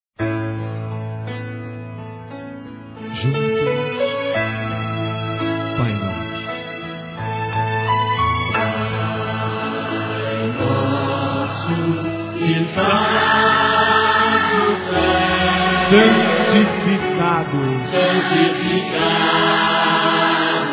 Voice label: A Vida sorri pra Você Feminino, Telemensagens; Amizade

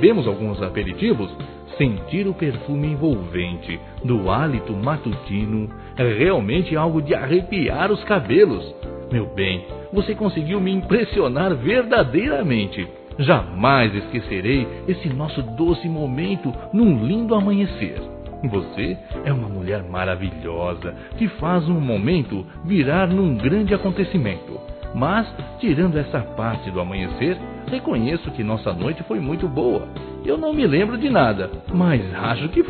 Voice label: ACORDAR AO SEU LADO M, Sensuais masc; Telemensagens

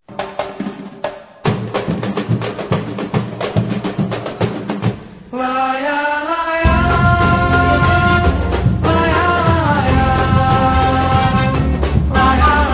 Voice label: Natal Para Mãe Masculino; Telemensagens; Natal e Ano novo

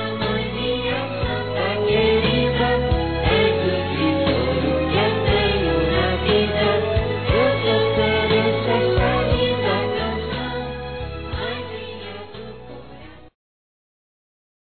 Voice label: Dia Das Mães Para Mãe Masculino; Telemensagens 2017

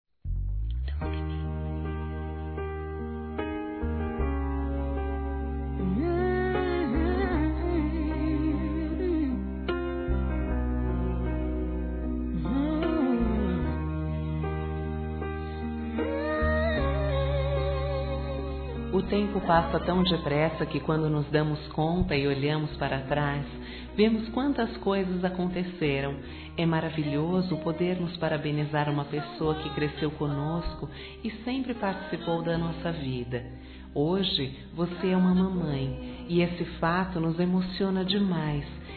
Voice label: MÃE IRMÃ DUAS VOZES, Telemensagens, Dia das Mães; Telemensagens 2017

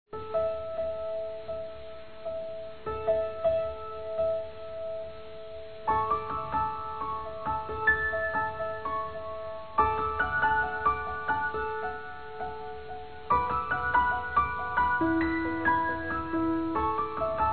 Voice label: Filha Criança Masculino

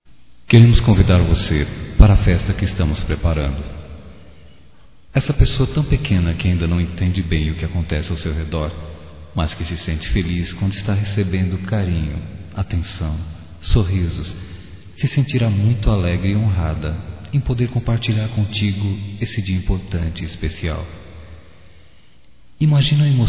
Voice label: Convite Aniversário de Criança Masculino, Voz; Telemensagens; Só Voz; Telemensagens 2017

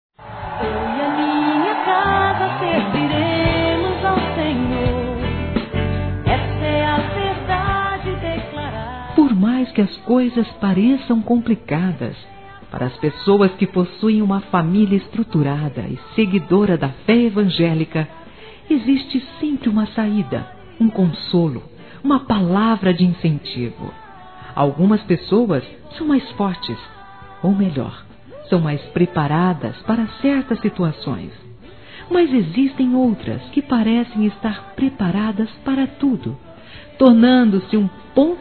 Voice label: ANIV TIO (A)(F); Aniversários; ANIV. Evangelico; Fem

